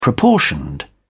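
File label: "proportioned", British English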